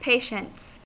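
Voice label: "patients", US English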